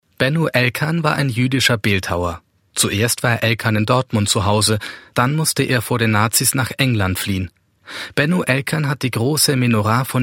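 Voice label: DE M corporate, German, male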